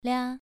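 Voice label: "lia", Mandarin Chinese, second tone, pronunciation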